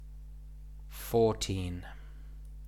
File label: "fourteen", English